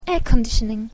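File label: "air condition", English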